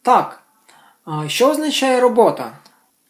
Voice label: dialogue, Ukrainian